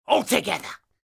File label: Pirate Elite Male Patrol Line; NPC; Heist Thug Captain Patrol